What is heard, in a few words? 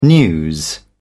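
"news", British English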